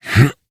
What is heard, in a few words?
Horse Dismount Adult; Duviri